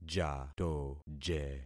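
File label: "jatoje", concatenated syllables; Affixing experiment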